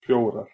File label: "fjórar", Icelandic